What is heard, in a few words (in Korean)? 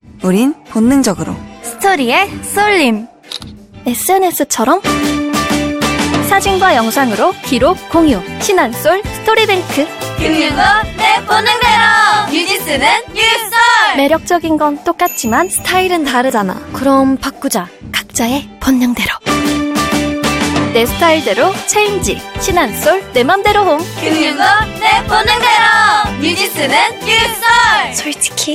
밝은 10대; 신한쏠